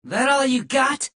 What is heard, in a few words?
jeers; tf; redsun; adachi; spy